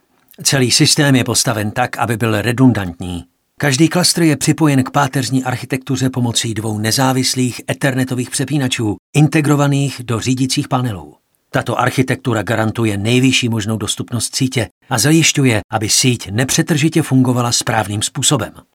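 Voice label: male Czech documentary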